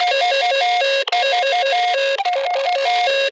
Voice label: Enemy Alarm, Dialog Barks Battle; Warframe; Lasrian

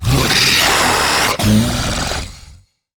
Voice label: Attack, Battle Barks; Warframe; MiTW

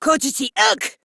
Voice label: Reload Female; en; Modular Corpus; Battle Barks

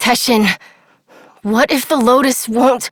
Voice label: Operator Voice; New War; Torpedo

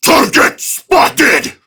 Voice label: Attack Prime Grineer Male; Tau; Battle Barks

